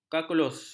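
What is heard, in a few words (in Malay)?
"kalkulus", Malay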